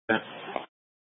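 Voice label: Incident Tactical 3, Scanner audio recording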